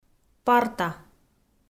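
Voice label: "парта", Komi, female; audio dict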